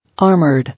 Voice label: "Armored", English